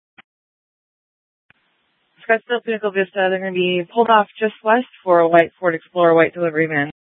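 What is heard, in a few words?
police dispatch, Scottsdale PD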